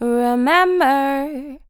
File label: "Remember", Female Vocals, Dry